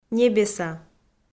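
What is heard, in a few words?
"небеса", Komi-Zyrian, female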